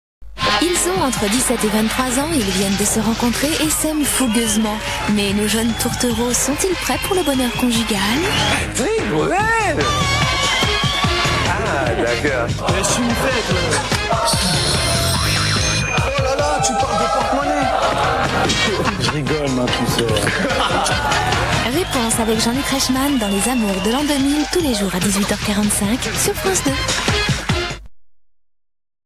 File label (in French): promo; 1999; france2; les zamours an 2000